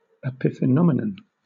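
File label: "epiphenomenon", British English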